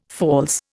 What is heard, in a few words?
"false", Indian